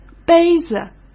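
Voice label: "杯子", Mandarin Chinese; chinese flashcards; hsk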